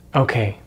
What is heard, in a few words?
"okay", English; Vowels in American English; easypronunciation